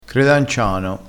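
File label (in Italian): "credanciano", dialettale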